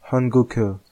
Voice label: korean